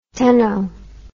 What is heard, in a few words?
"cteno", english